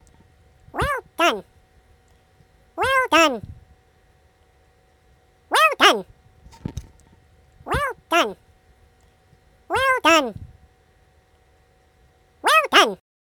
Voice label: Well Done Cartoon Voice

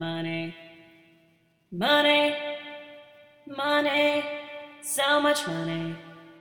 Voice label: Money Vocal In Autotune

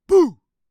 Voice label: Scary Boo

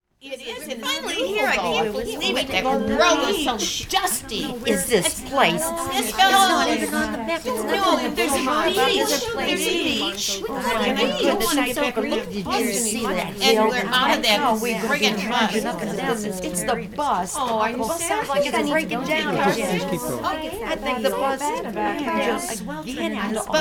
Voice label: Excited Chatter

